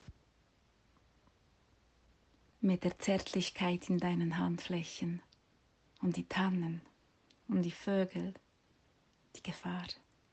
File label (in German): Deutsch